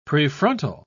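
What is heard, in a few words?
"prefrontal", English, British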